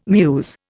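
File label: "mews", English, Indian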